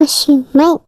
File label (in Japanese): 子供(幼児)の「おしまい」という声の効果音。; 動画の締めに使えるかも？; 甥っ子に協力していただきました。